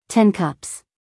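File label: "ten cups", American English female; everyone-can-use-english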